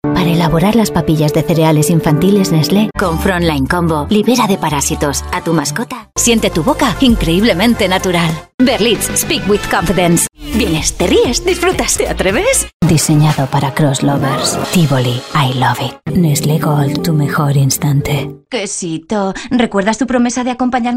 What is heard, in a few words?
spanish commercial